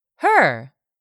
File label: "her", English; 3000 words